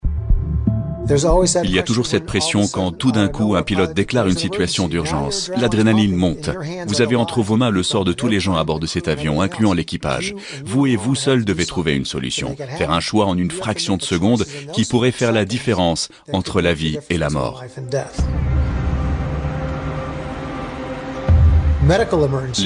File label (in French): voice over aiguilleurs du ciel